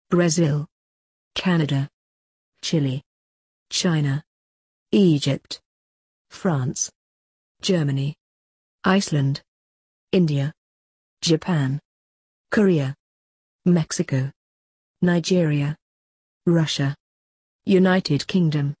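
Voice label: British; languages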